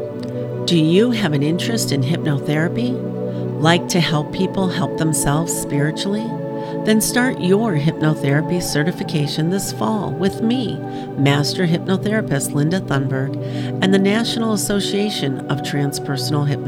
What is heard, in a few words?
Ad